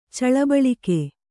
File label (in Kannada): "ಚಳಬಳಿಕೆ", Kannada